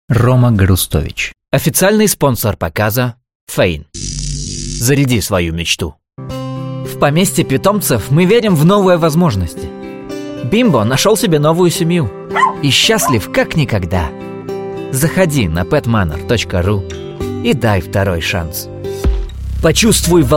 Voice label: Russian Commercial